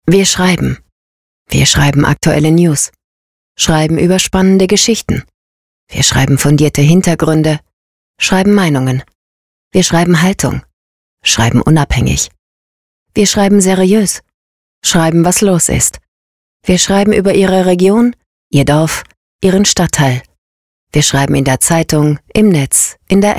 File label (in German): Werbung, Commercial (Werbung), German